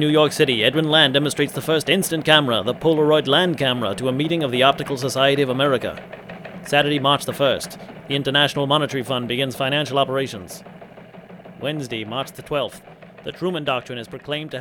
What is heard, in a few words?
Old Time Radio, Artistic Voice Talent